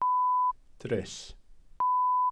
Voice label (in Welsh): "dryll", glossary